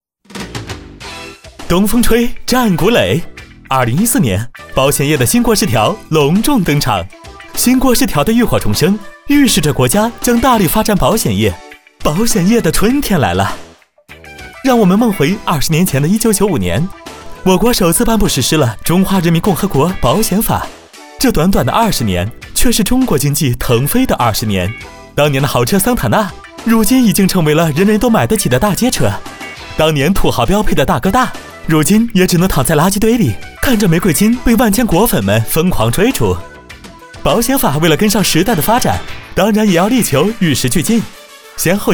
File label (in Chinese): 男 保险法 风趣幽默; 飞碟说